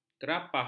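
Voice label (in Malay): "kerapah", Malay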